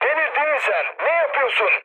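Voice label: Turkish player killed ally, mood med, speech; War Thunder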